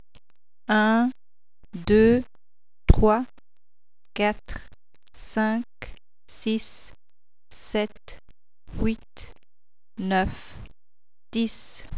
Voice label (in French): counting swiss fr; languages; words n phrases